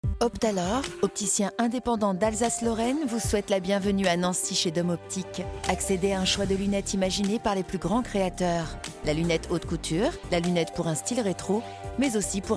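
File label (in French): promo cinema, voix off; Essilor Optalor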